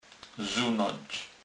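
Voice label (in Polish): "zunac", Kurpie dialect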